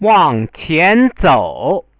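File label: "wangqianzou", Chinese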